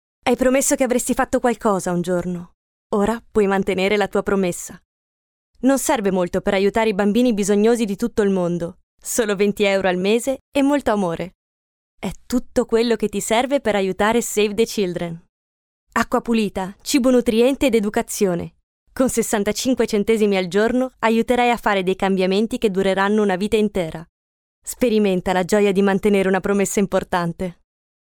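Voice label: Italian female Commercial; voice over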